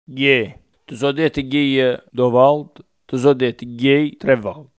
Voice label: "io", coratino; ĒGO; Archivio di parlato dialettale del progetto Galileo G14-126

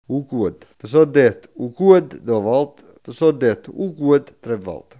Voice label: collo, coratino

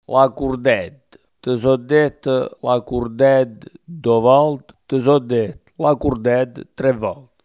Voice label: "corda cordella", coratino

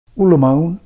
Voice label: "limone", coratino

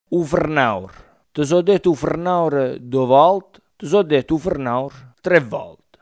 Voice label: setaccio farinario, coratino

